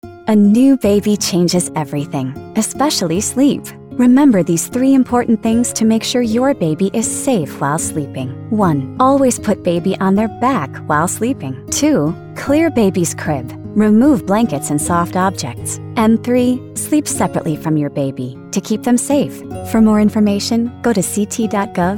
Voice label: radio english; safe sleep